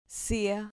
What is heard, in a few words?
"cia", Spanish syllable